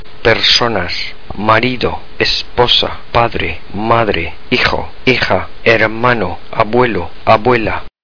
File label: spanish people